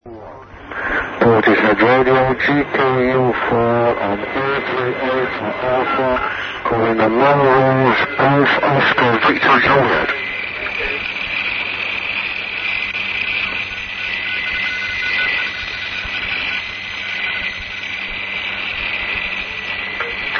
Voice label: usb; melrose